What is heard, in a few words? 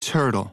SLOW TURTLE, American; American IPA phonic